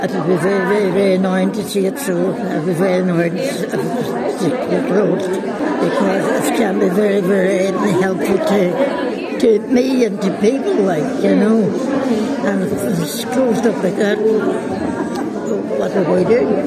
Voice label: vox pop